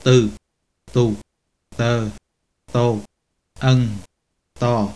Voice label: vietnamesisk; [tɯ tu tɤ to ʌŋ tɔ]

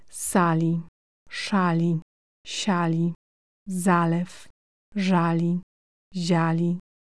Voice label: polsk, polsk [ˈs̪ali ˈs̠ali ˈɕali ˈz̪alɛf ˈz̠ali ˈʑali]

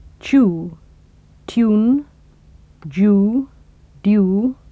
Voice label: engelsk chew tune, engelsk [t͡ʃu: tju:n d͡ʒu: dju:]

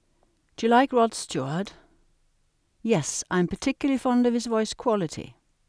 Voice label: engelsk, Do you like? Yes, I am particularly fond of his voice quality.

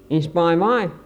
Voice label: fynsk en spand vand; fynsk [ẽ sb̥ɑ̃j̃ ˈvɑ̃j̃]

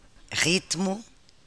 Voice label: portugisisk ritmo; [ˈʀ̝itmʊ]

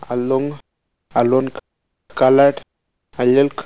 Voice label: kaititj; [al̪ʊŋ alʊnk kaɭɐt al̠ɪlk]

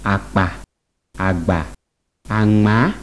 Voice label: idoma; [àk͡pà àg͡bà aŋ͡màa]

